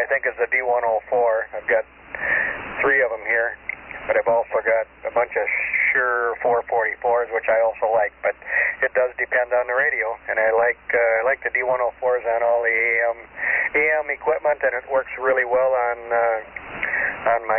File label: Kenwood TS-820S; New London, Wi.